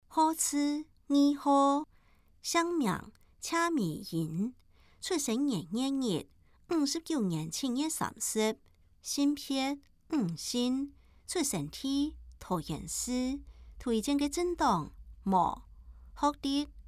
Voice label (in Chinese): 客語; 有聲公報; 市議員